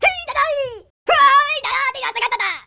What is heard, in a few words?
vox jawa